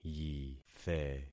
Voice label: "yithe", concat syllables; Affixing experiment

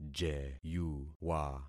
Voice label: "jeyuwa", concat syllables; Affixing experiment

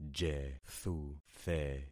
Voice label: "jethuthe", concat syllables; Affixing experiment

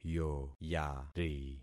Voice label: "yoyari", concat syllables; Affixing experiment

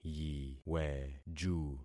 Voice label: "yiweju", concat syllables; Affixing experiment

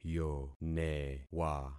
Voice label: "yonewa", concat syllables; Affixing experiment

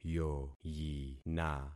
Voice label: "yoyina", concat syllables; Affixing experiment

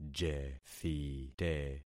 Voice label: "jethite", concat syllables; Affixing experiment

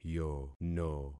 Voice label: "yono", concat syllables; Affixing experiment

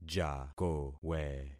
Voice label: "jakowe", concat syllables; Affixing experiment

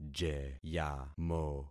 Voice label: "jeyamo", concat syllables; Affixing experiment

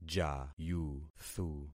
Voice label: "jayuthu", concat syllables; Affixing experiment

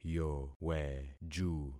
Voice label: "yoweju", concat syllables; Affixing experiment

